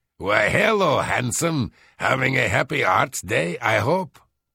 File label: Hello Male; Unused Holiday; Argonian